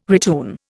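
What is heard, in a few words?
"retune", Indian English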